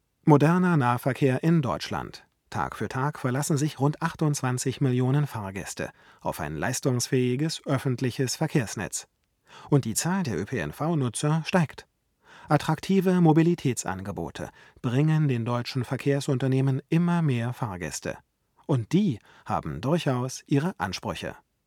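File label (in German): u87 unbearbeitet, Sprecherraum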